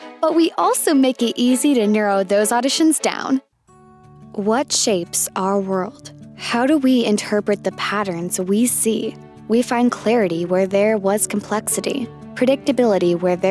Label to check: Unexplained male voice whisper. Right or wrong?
Wrong